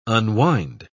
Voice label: "unwind", pronounce